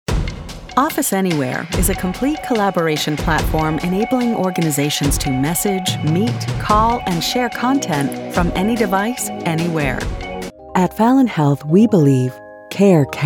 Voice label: english american corporate videos

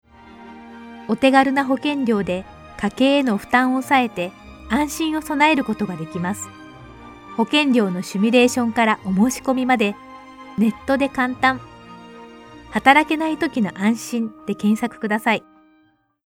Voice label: japanese corporate videos

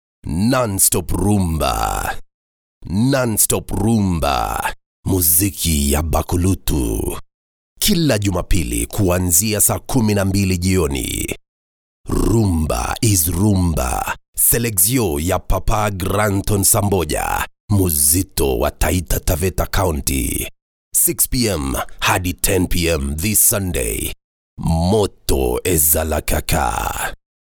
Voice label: swahili movie trailers

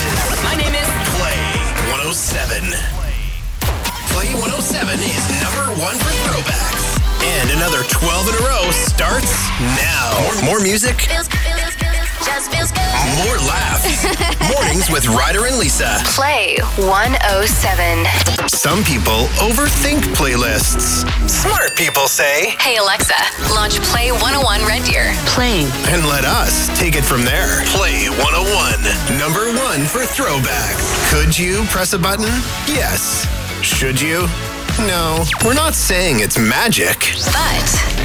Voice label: english canadian radio imaging, voice actors

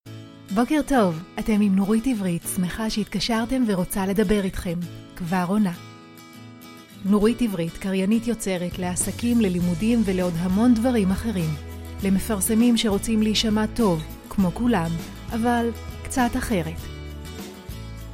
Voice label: hebrew ivr

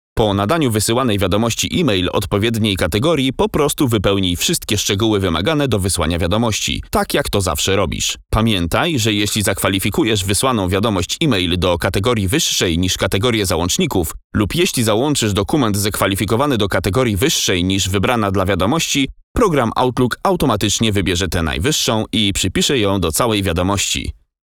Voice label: polish corporate videos

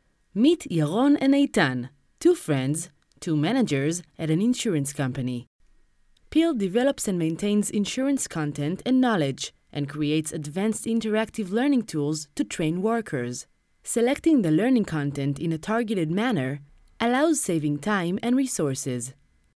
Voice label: english commercial

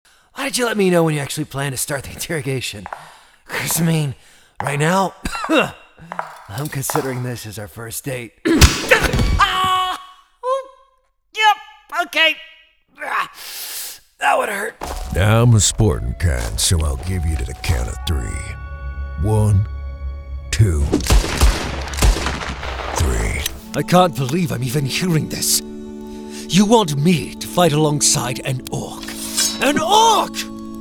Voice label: english american video games

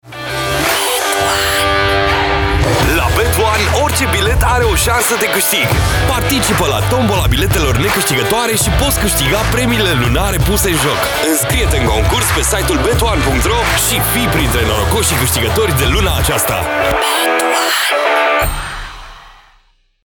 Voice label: romanian commercial

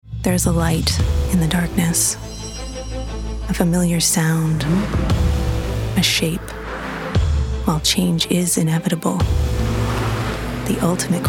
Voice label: english canadian commercial; clever voice actors; Sprecherin; international anerkannte Sprecherin

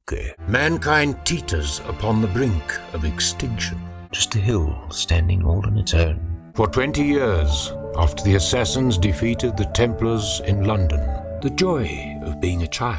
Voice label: english south african video games

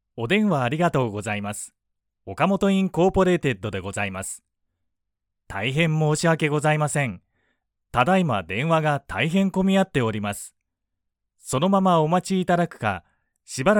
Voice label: japanese ivr

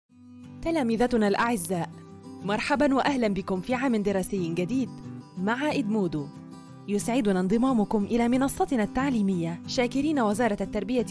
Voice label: arabic e-learning

